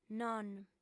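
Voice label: "non", female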